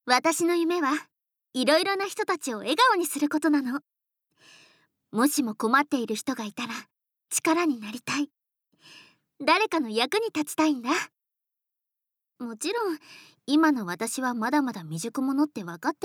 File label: Woman